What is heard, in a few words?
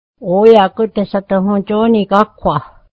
would you like some more food, mohawk